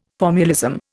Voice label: "formulism", Indian

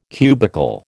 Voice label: "cubical", American

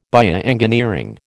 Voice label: "bioengineering", American

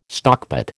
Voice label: "stockpot", American

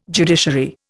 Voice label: "judiciary", Indian

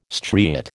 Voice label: "striate", American

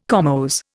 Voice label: "comose", Indian

